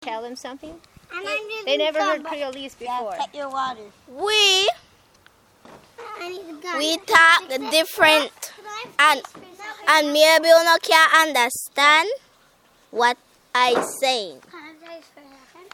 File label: explaining creole